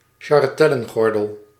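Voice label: "jarretellengordel", Dutch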